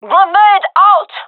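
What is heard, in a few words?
throwing grenade, gendermerie female; vo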